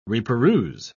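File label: "reperuse", British English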